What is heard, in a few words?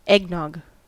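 "eggnog", American English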